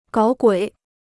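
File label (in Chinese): "搞鬼" Chinese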